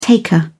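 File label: "taker", British English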